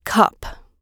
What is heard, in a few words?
"cup", gb